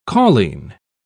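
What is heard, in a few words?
"cauline", British English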